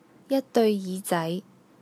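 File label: "deui3 yi5jai2", Cantonese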